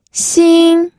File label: "xing1", pinyin